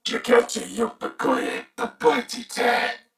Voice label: Patrol, Corpus Venus Male; Dialog; Barks; Battle; Warframe; CorpusVenusMaleA

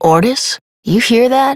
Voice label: Adult Op, en; New War; Ascend Tower

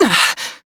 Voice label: Pain, Female; Warframe; Railjack; New Loka

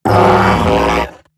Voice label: Death Eximus Corpus Robot Large; Corpus Robotic Large

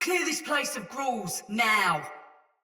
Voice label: Patrol Ode Soldier Mace Agent Female; Battle; Sinecure Melee

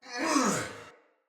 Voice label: Attack, Barks Battle Soldier; Sinecure Soldier

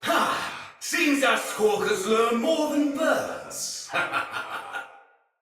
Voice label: See Player Shout, Battle; Thawtide Hunter; en

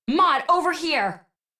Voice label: Operator Voice D; Warframe; Operator Mission; Mod Marked; en

